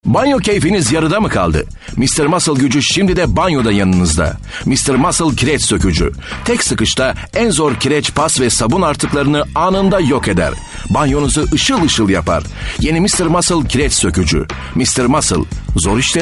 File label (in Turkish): neseli esprili, seslendirme